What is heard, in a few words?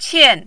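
"qian", Mandarin, fourth tone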